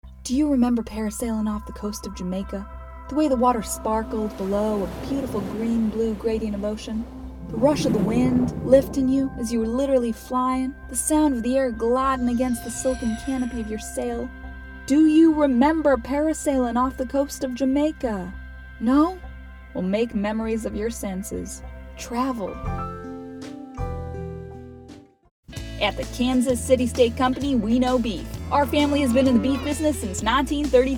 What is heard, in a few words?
Southern American